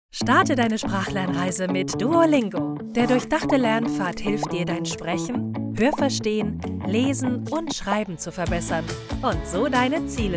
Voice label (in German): Werbung; Duolingo